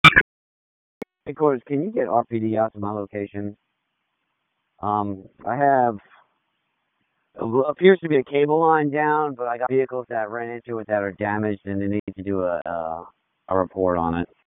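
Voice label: Fire Department HQ Dispatch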